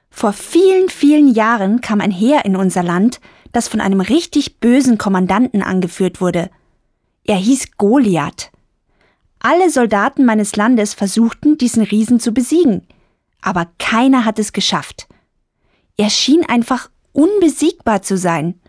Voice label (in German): audioguide